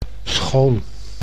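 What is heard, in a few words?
"schoon", Dutch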